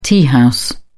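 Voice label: "teahouse", British English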